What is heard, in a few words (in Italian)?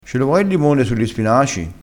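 "none", dialettale crespinese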